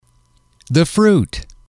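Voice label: the fruit, male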